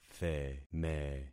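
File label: "theme", concatenated syllables; Affixing experiment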